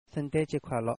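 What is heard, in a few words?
happy xmas, Shanghainese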